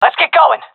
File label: request move, seal fem; vo; Counter Strike Model Pack; CS:GO